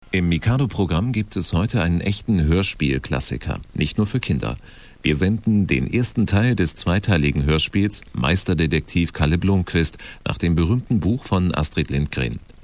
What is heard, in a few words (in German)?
Ansage; Astrid Lindgren; Kalle Blomquist - Meisterdetektiv Teil 1